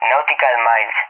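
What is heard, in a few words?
"Nautical miles" English, speech; DCS; Allied Flight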